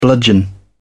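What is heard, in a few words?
"bludgeon", British English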